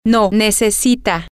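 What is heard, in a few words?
she needs no, Spanish, female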